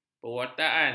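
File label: "pewartaan", Malay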